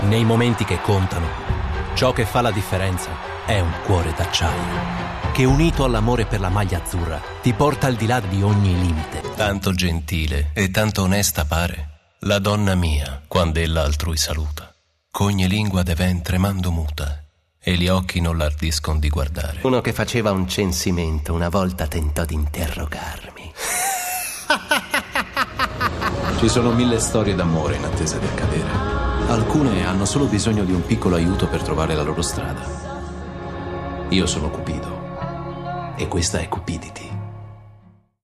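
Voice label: Italian; European; Male; 20s-30s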